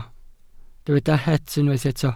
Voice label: "het", Welsh

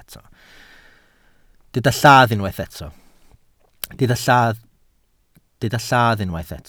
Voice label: "lladd", welsh

